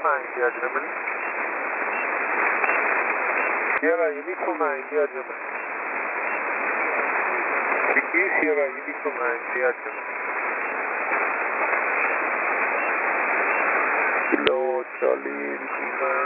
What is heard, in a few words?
qso; 14299khz